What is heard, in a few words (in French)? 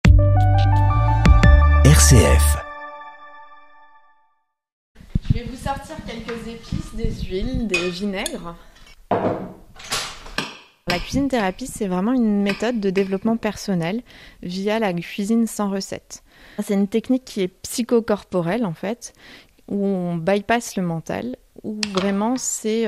radio emission; RCF